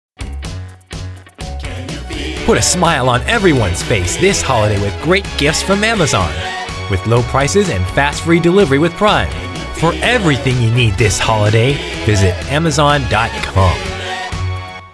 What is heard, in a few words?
Commercial; Amazon